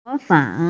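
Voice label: "mo fang", Mandarin Chinese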